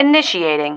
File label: "initiating", female